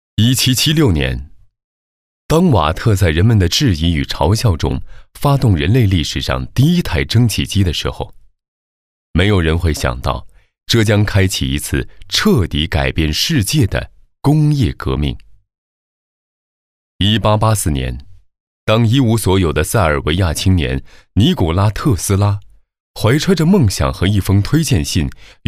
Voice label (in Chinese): 男 纪录片 人文历史; 互联网